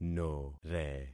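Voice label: "nore", concatenated syllables; Affixing experiment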